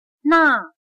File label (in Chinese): "那", chino